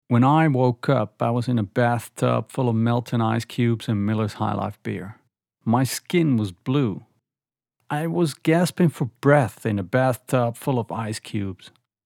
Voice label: Accent Standard American